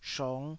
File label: "shong5", taiwanese